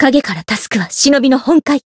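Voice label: Voice variable chant, Japanese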